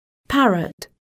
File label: "parrot", vocab